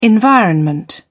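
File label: "environment", English, British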